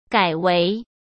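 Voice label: "改为", Mandarin Chinese; hsk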